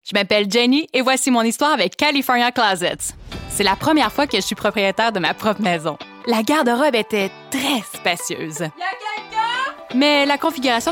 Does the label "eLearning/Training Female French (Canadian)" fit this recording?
Yes